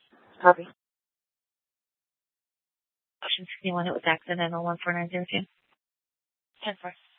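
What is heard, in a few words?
police department dispatch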